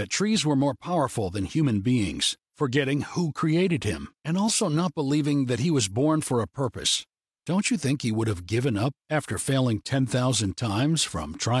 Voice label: Audio Book